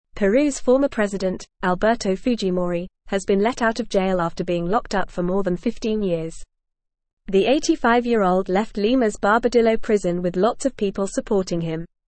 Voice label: Upper Intermediate FAST Reading, English; English Newsroom; Alberto Fujimori Released from Prison After 15 Year